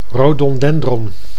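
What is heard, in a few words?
"rodondendron", Dutch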